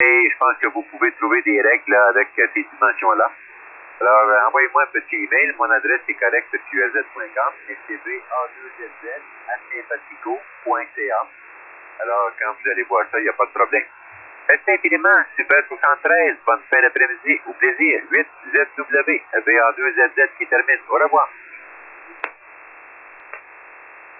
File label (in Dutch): zendamateurs USB